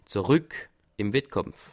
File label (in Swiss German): "zeruck", alsace